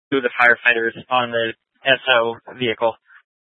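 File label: Incident Tactical, scanner audio recording; Incident Tac 1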